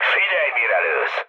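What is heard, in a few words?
player friendly fire, mood med, Hungarian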